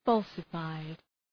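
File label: "falsified", English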